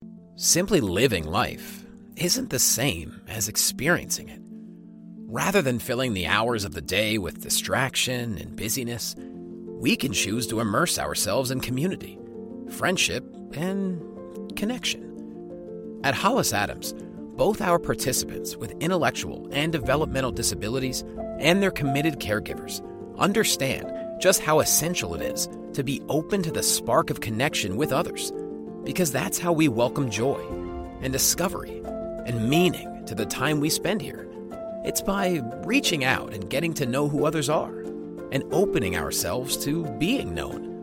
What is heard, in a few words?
Narration Sincere; Nursing Home